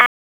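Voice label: Police Southwest District Tac, radio; Raleigh; Tac 1; wake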